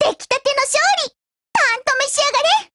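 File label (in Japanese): win, Japanese; MP446Mod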